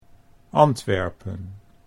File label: "Antwerpen", Dutch